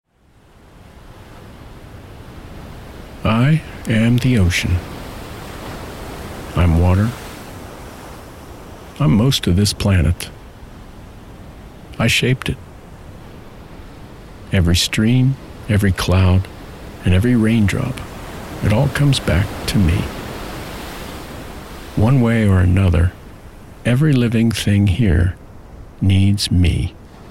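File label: English US male; character; the ocean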